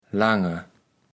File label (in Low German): "Lange", kaevels platt